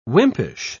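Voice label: "wimpish", British English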